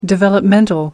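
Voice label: "developmental", British English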